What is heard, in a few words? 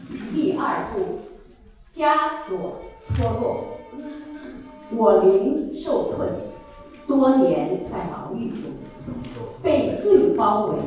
recitation, choir; 2018 easter grace; broken chains